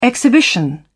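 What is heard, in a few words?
"exhibition", British English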